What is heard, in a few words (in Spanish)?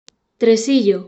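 "tresillo", Spanish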